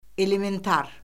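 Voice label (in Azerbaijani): "elementar", Azerbaijani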